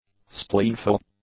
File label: "spleenful", english; adjectives